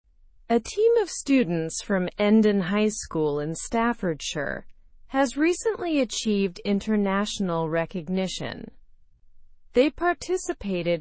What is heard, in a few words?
learn english through news upper intermediate; endon high school students earn global recognition